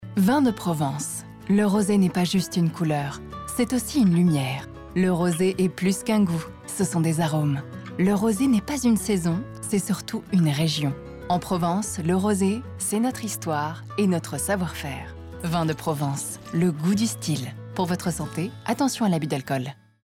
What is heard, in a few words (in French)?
spot radio vins de provence